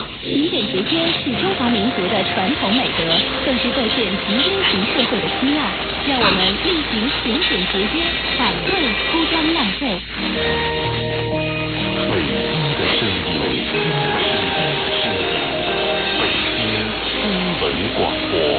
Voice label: news radio closing; baijing